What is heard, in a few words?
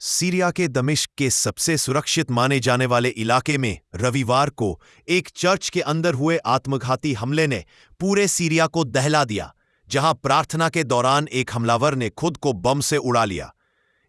cheerleader